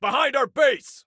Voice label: Warn Enemy Behind Our Base, Male; T2 Male 04